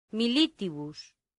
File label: "militibus", latin; santillana